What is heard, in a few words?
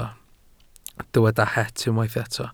"het", Welsh